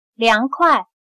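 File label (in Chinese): "凉快" Mandarin Chinese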